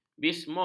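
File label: "bismut", Malay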